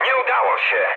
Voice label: bomb failure mood med, speech pol; War Thunder